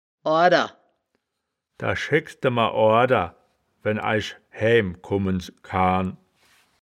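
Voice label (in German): "oorder", Wittlicher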